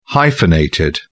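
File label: "hyphenated", British English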